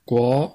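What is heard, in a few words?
"guo", Chinese, tone 2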